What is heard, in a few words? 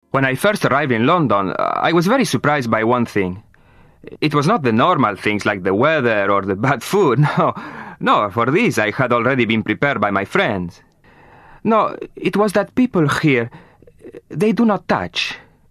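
Latin American English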